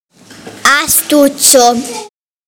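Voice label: "pencil case", Italian; classroom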